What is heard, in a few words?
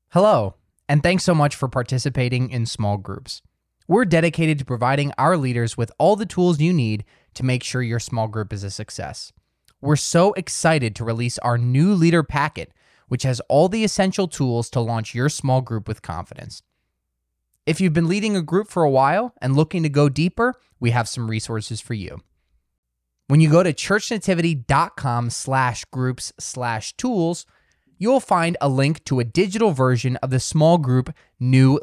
Voiceover; New Leader Packet